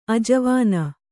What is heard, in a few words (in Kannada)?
"ಆಜವಾನ", Kannada